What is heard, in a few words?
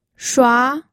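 "shua2", Mandarin Chinese pinyin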